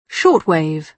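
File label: "short wave", British English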